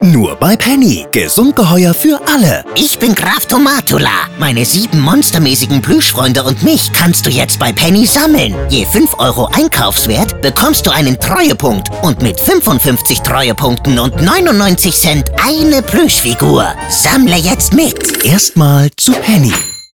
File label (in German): Werbung Spot; Graf Tomatula; Penny